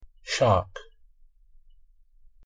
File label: pronunciation en shark